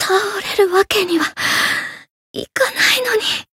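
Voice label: Voice retire, Japanese; saki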